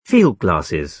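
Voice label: "field glasses", British English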